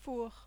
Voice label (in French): "four", French